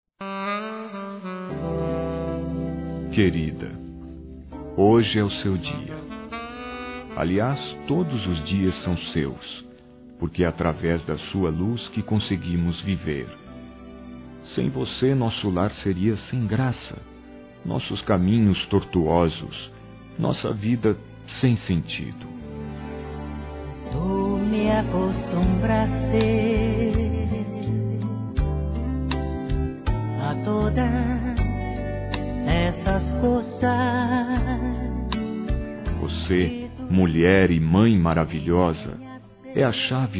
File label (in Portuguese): ESPOSA COM FILHOS, Telemensagens, Maternidade, masc